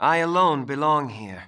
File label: Male; baldurs1